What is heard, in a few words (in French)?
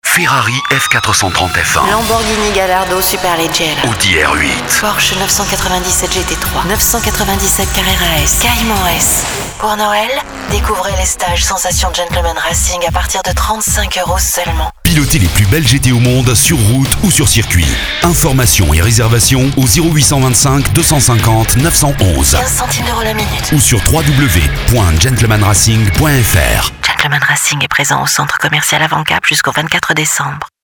radiopub spot; automobiles